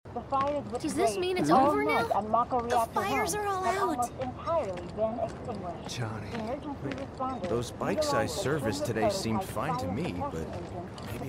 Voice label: ambiente sounds voices; final fantasy vii remake